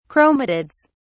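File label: "chromatids", British English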